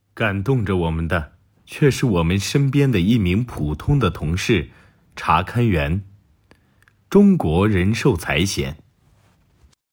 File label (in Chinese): 宣传片配音; 中国人寿